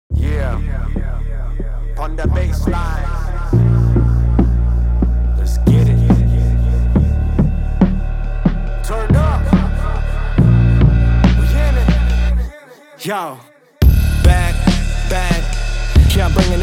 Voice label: Lyrically Conscious Hip Hop Vocal Pack; Black Octopus Sound